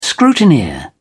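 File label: "scrutineer", British English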